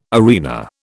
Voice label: "arena", British

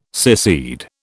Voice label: "secede", British

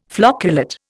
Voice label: "flocculate", Indian

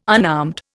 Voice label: "unarmed", Indian

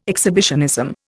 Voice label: "exhibitionism", Indian

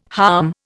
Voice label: "harm", Indian

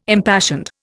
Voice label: "impassioned", Indian

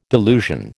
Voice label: "delusion", American

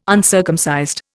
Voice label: "uncircumcised", Indian

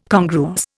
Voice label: "congruous", Indian

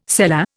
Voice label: "selah", Indian

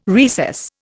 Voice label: "recess", Indian

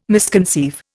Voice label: "misconceive", Indian

